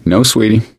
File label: no female; voice; source engine; left 4 dead 2; survivor; gambler